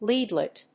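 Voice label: "lead light", English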